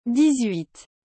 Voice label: "dix-huit", French